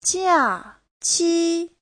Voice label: "jia4qi1", chinese; 假期; kỳ nghỉ